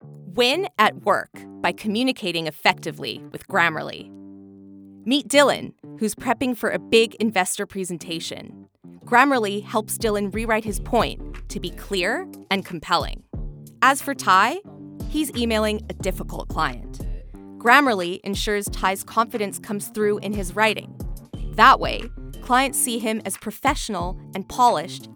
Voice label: female English neutral North American accent commercial; canadian; grammarly